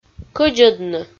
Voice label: "кӧдзӧдны" Komi

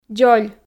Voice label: "дзоль", Komi

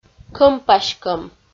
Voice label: "кӧм-паськӧм" Komi

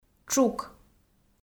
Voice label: "тшук", Komi

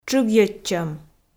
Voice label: "тшыгйӧдчӧм", Komi

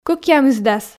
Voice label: "кӧкъямысдас" Komi

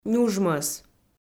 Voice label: "нюжмӧс", Komi

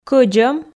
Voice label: "кӧдзӧм" Komi

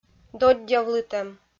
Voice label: "доддявлытӧм", Komi

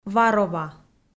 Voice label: "варова" kpv f

